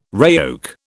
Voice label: "RAOC", British

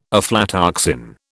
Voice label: "aflatoxin", British

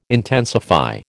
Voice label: "intensify", American

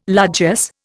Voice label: "largesse", Indian

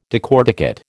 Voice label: "decorticate", American

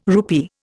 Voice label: "rupee", Indian

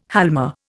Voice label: "halma", Indian

